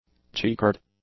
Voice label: "chequered", English; adjectives

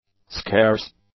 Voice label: "scarce", English; adjectives

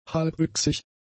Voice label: "halbwüchsig", German; adjectives

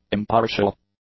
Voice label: "impartial", English; adjectives

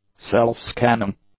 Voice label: "self-scanning", English; adjectives

